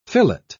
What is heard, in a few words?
"fillet", pronounce